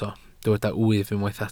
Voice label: "wyf", Welsh

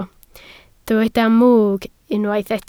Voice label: "mwg", Welsh

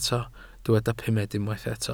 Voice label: "pumed", welsh

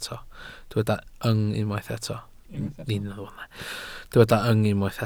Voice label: "yng", Welsh

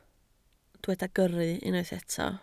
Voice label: "gyrru", Welsh

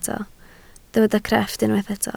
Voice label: "crefft", Welsh

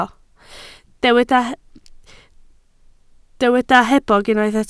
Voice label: "hebog", Welsh

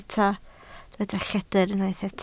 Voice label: "lleidr", Welsh

